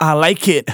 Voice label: Phrase Male Rap; Vengeance Samples

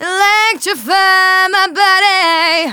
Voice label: Phrase Female; Vengeance Samples; Phrases

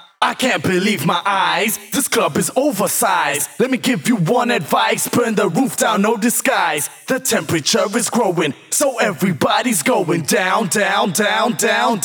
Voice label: Rap Vocals Vers2 WET, 140 BPM; Vengeance Samples; Song Kits; U Got Me Rocking

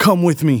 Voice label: Phrase Male Rap; Vengeance Samples

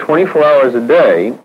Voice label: LoFi Phrases, LoFi Vocals; Vengeance Samples

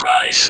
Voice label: Vocoder Words; Vengeance Samples

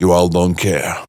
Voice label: Phrase Pitched; Vengeance Samples

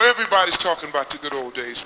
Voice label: LoFi Phrases, LoFi Vocals; Vengeance Samples

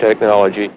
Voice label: LoFi Words, LoFi Vocals

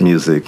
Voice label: LoFi Words, LoFi Vocals

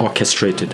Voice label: LoFi Words, LoFi Vocals

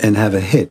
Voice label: LoFi Phrases, LoFi Vocals; Vengeance Samples

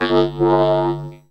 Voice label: Vocoder Words; Vengeance Samples

